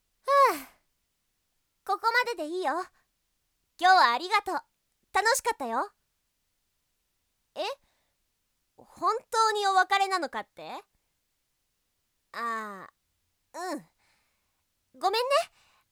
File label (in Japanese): ボイスのみ